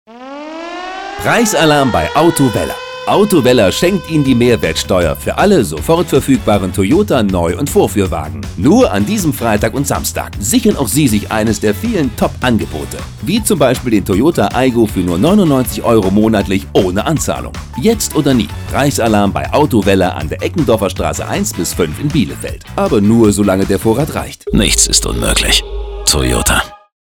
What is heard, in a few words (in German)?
Radiospot